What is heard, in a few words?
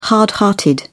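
"hard hearted", British English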